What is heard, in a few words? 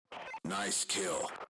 nice kill, radio